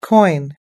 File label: "coin", us english